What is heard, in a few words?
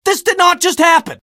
jeers; scout; source engine; tf